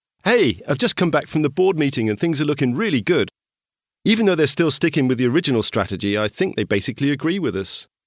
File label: with voice clarity